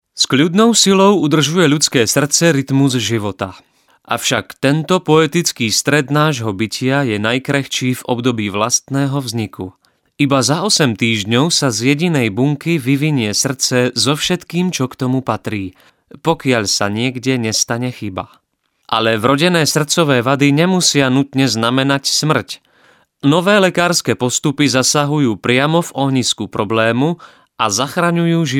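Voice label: Male Slovak documentary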